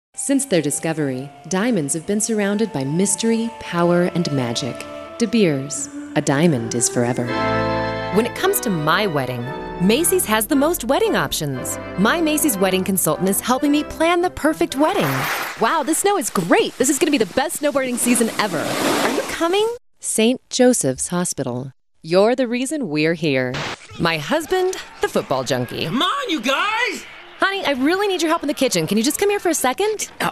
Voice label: commercial